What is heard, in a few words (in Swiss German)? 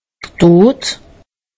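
"tot", Alsatian; medecine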